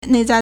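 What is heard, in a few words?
"nei4zai4", Mandarin Chinese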